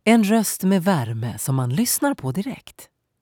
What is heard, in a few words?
Swedish movie trailers